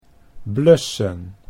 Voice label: "blussen", Dutch

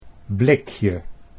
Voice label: "blikje", Dutch

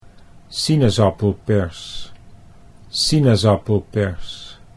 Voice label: "sinaasappelpers", Dutch

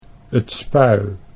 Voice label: 't Spui, Dutch

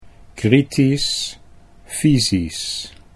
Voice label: kritisch fysisch, Dutch

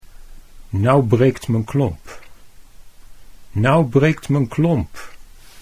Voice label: "nou breekt m'n klomp", Dutch

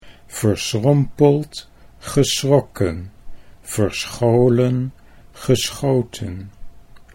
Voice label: verschrompeld geschrokken, Dutch

